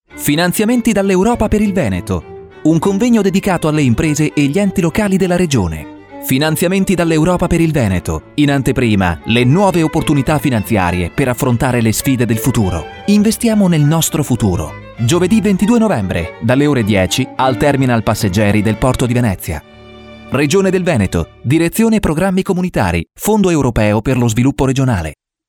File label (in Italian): spot radio